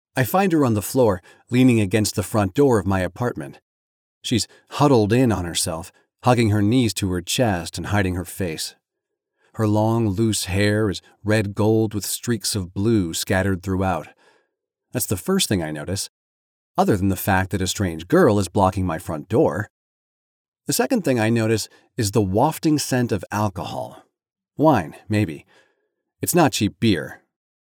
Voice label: Voice Actor Fiction Dialogue M F